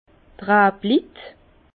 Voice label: "rablit", Bas Rhin; vin; professionnels de la vigne

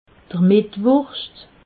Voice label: "metwurscht", alsace

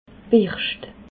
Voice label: "berscht", alsace

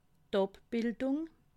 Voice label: "abbildung", alsace

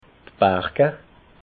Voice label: "barga", alsace

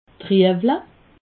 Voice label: "riewle", alsace

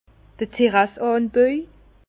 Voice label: "terrasse anbau", Bas Rhin; vin

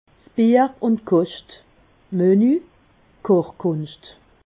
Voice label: "koscht", Alsace

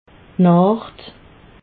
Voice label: "nacht", alsace

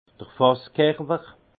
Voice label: "fasskerwer", alsace; vin; corps du fût

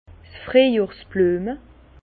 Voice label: "friejjohrs", Bas Rhin; fleurs printanières; vin

